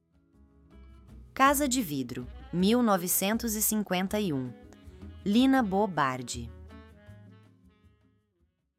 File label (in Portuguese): narracao; casa de vidro